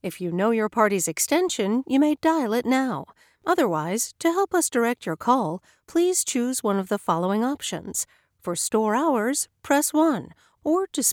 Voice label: Telephony; Female